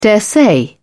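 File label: "daresay", British English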